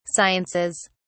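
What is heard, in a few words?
"sciences", British English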